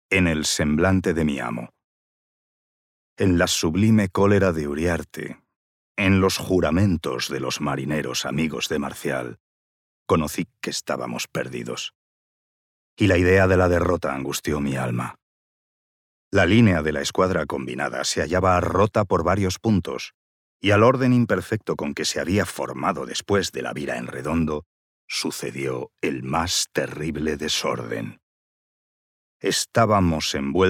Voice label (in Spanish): NARRATIVA EN PRIMERA PERSONA; 22Trafalgar22; Galdós